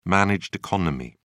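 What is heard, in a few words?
"managed economy", English, uk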